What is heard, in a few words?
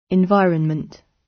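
"environment", British; Language, linguistics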